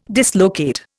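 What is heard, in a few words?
"dislocate", Indian English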